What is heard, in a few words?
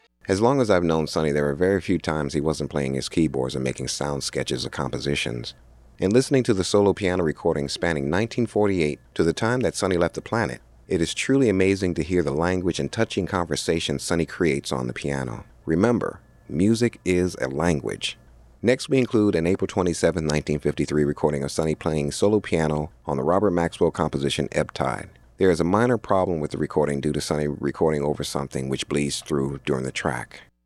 narration